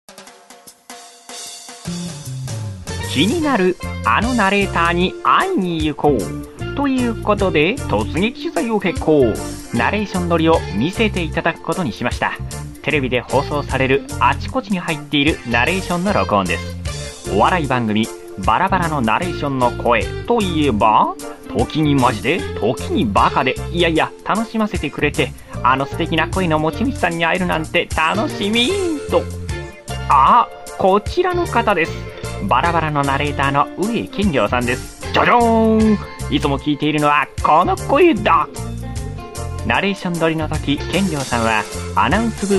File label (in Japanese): ナレーションサンプル, 声質・高め, 雰囲気・バラエティ; 私の自己紹介？